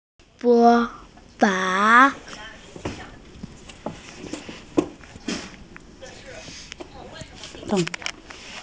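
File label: "mo fang" Chinese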